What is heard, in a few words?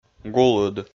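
"гулыд", Komi, male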